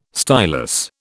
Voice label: "stylus", British

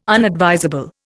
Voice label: "unadvisable", Indian

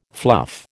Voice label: "fluff", American

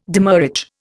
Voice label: "demurrage", Indian

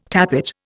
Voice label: "tappet", Indian

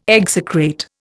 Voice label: "execrate", Indian

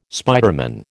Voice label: "spiderman", American

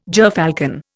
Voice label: "gerfalcon", Indian

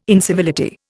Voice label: "incivility", Indian

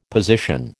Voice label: "position", American

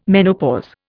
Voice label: "menopause", Indian

